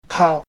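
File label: "hau2", Hakka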